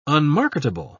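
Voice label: "unmarketable", English, British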